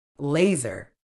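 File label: "laser", British English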